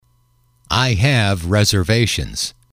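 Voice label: "I have reservations", English, male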